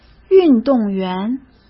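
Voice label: "运动员", Mandarin Chinese; hsk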